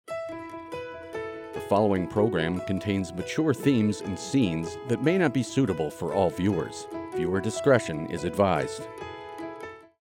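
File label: Announcer Mature Scenes male; mature themes and scenes; PBS WI; Promotions; Rebrands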